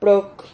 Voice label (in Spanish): "proc", Silabas